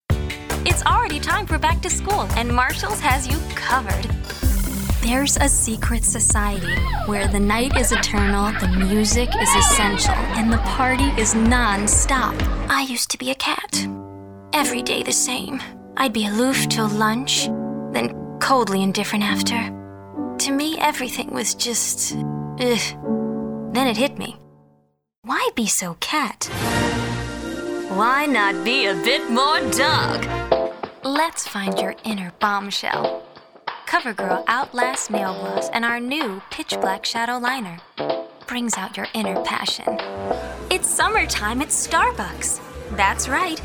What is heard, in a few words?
Commercial